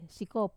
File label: "sikop", shovel, wamesa